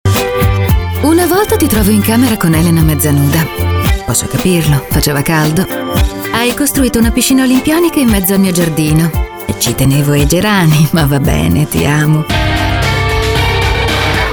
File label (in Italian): recitato giocato; breil